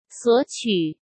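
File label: "索取", Mandarin Chinese; chinese-flashcards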